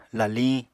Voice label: "laliñ", Apatani